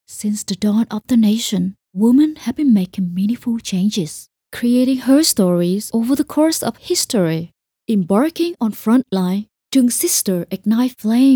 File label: Emotional Inspiration Medical